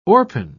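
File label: "orpine", British English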